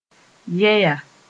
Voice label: "yɛya", Mooré; jaws